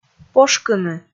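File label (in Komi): "пошкыны" Komi, female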